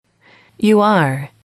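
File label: "you are formal", English, female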